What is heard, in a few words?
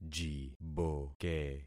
"jiboke", concat syllables; Affixing experiment